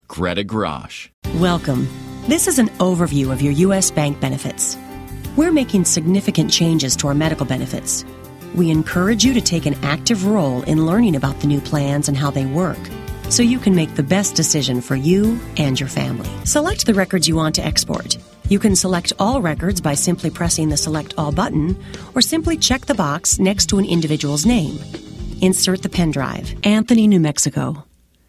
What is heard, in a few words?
female narration